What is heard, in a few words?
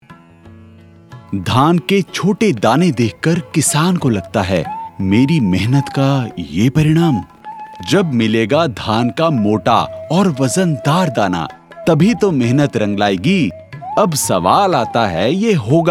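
hin, Hindi Voice Over Artist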